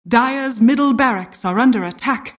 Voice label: announcer rax attack dire mid

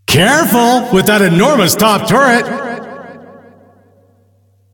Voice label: Announcer; Ringmaster

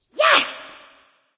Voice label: Emote Yes; Hel; Smite

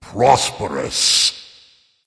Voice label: emote dragon; Fafnir; Smite

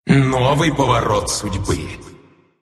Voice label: Monkey move pain, ru; dota2

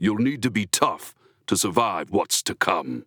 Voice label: Announcer Health; paladins

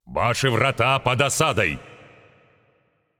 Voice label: Announcer Russian Match Under Attack Gate; paladins

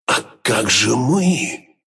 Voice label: death, ru

